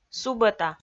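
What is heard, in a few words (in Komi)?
"субӧта", Komi, female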